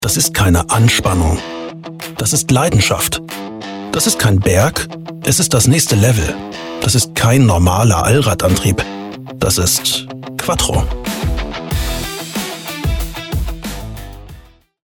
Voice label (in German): Spot Broadcast